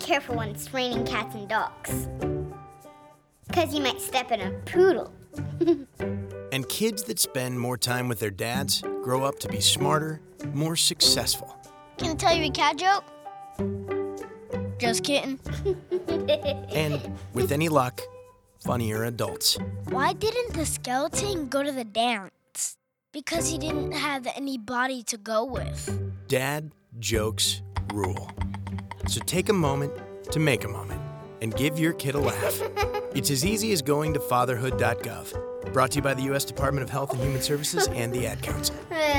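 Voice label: Groan Radio English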